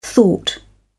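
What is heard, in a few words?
"thought", British English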